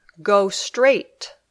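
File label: "Go straight", English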